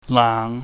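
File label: "laang", Cantonese, low rising tone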